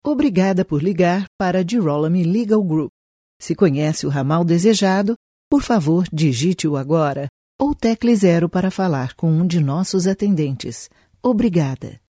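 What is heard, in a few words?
Brazilian Portuguese IVR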